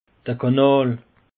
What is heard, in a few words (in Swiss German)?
"kanal", alsace; peche